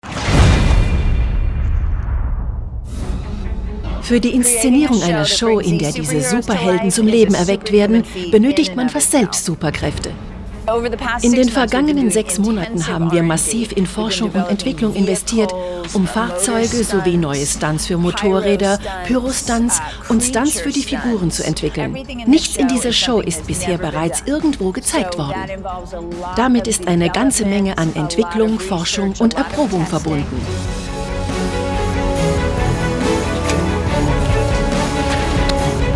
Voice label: Trailer voice over; Marvel